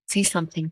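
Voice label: Voice Acting es, female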